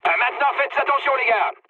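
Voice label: near waypoint, mood medium, French; War Thunder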